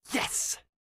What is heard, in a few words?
"yes", male